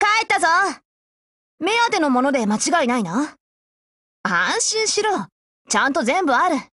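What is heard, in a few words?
operation over, voice, Japanese; GF Alarm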